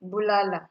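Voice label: "abulala", Nigerian Pidgin, female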